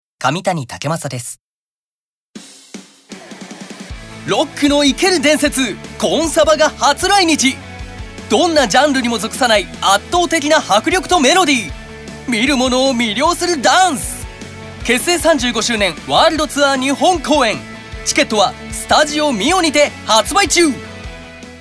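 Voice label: commercial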